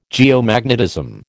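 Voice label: "geomagnetism", American English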